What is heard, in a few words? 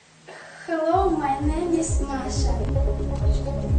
girl speak